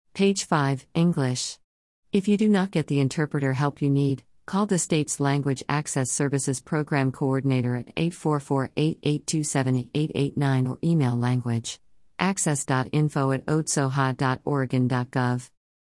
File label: english